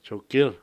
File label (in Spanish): "trueno", Cucapá